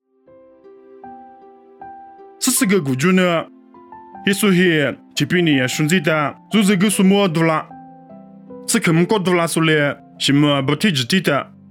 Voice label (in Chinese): 凉山彝语; 以色列提比哩亚